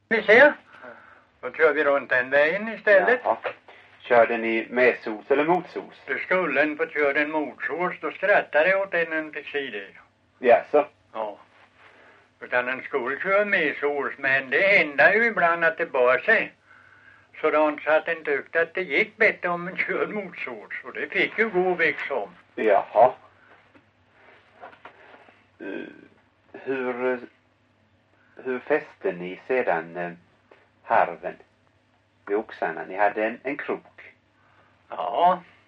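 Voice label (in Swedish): Långlöt Småland, dialekter, svenska sagor; Folkminnen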